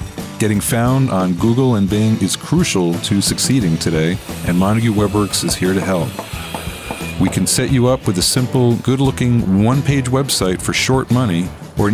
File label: Radio Ad Contractors; Montague WebWorks